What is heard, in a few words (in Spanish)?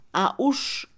cena, Mixe, Mujer; Comida; INALI